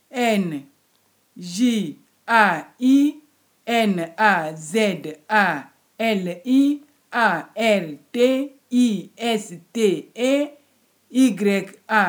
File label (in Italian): Congo Lingala